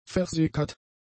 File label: "versickert", German; adjectives